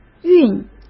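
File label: "运", Mandarin Chinese; chinese flashcards; hsk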